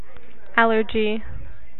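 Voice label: "allergy", USA, female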